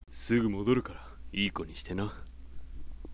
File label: sample sweet low, voice